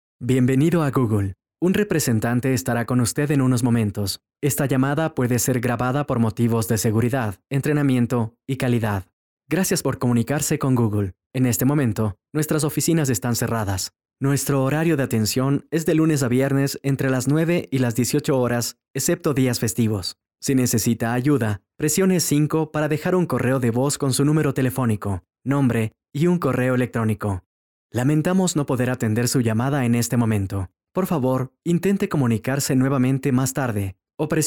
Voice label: Spanish IVR